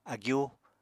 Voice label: "agyú", Apatani

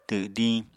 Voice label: "twdiñ", apatani

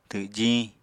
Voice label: "twjiñ", Apatani

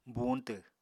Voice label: "buuñtw", Apatani

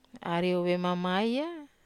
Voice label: "ariou vemamaya", Wamesa

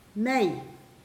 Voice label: "breadfruit", Pingelapese

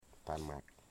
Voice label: "tongue", Wamut

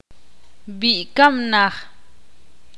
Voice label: Cementerio camposanto, Ixil

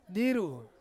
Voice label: "diru", Ansus; night

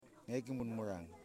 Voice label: Female Paddle, yimas

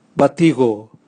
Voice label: kingfisher, Raga

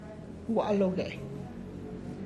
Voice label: I went to the market, Tlacochahuaya Zapotec; (Spanish) Fui al mercado; gua' lo ge'

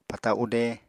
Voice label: "pata ude", Apatani; Material Culture

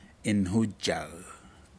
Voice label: seashell "inhujac", Aneityum

